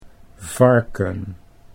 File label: "varken", Dutch